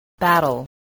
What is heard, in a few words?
"battle", English